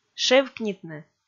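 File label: "шевкнитны", Komi, female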